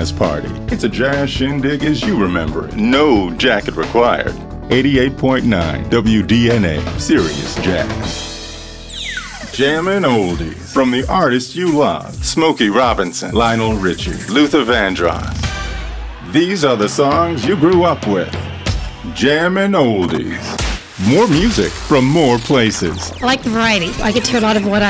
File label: Radio Imaging